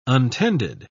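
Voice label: "untended", British English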